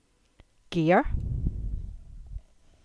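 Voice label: "Gear" English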